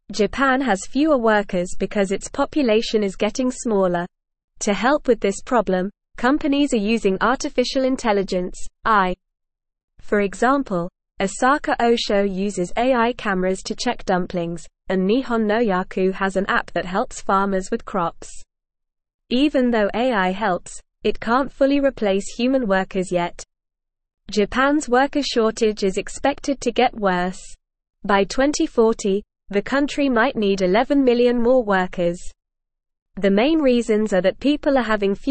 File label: English NORMAL Reading; Japan Uses Smart Computers to Help with Work